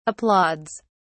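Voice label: "applauds", British English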